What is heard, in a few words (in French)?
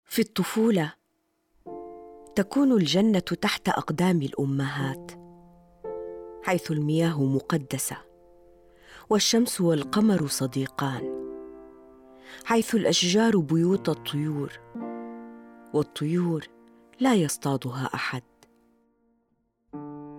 poeme arabe francais; Lettres du paradis